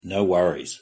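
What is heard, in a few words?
"no worries", English pronunciation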